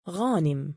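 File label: male "ghaanim", Arabic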